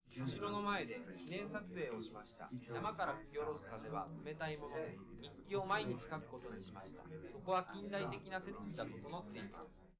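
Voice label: male, live recording; SiSEC11; underdetermined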